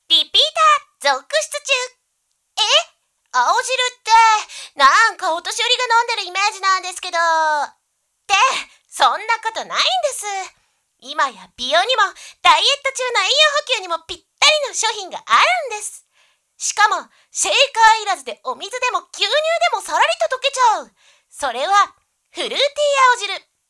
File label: narration